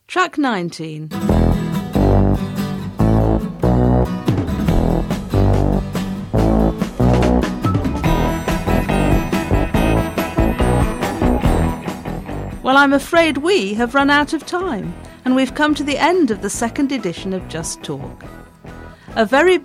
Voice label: Closing Announcement